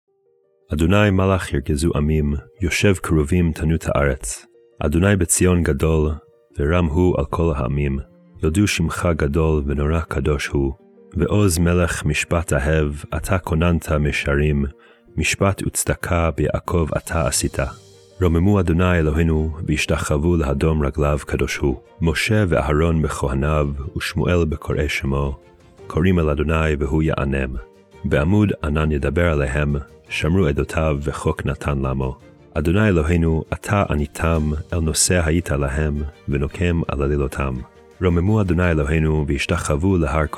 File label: poetry and prayer psalm hebrew